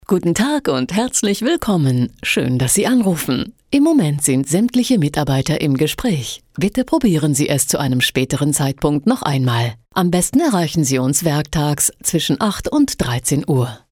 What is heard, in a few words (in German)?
Anrufbeantworter; men at work